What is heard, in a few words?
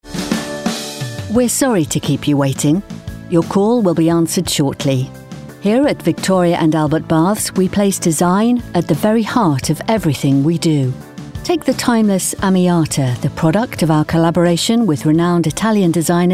telephony